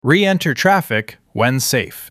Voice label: Male Reenter Traffic

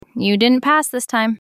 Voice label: Female You Didnt Pass

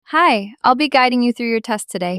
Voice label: Female "Hello"

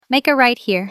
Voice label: Female Make A Right

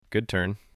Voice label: Male Good Turn

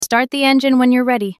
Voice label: Female Start Engine

